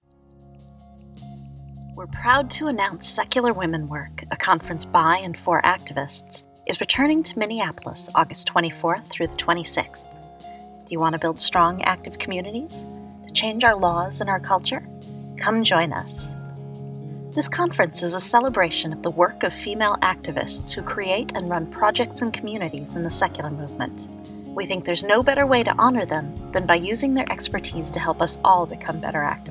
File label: Radio Spot